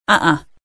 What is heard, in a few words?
Female Uh Huh; Survivor The Interactive Game; Player